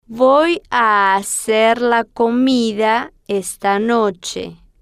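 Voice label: "voy a hacer la comida esta noche", Spanish, female, slow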